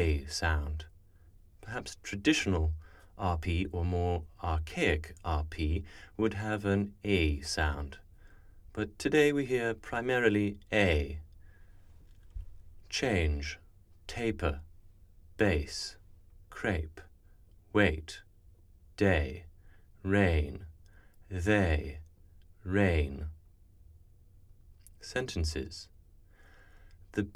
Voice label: Diphthongs Face, rp; speech; dialects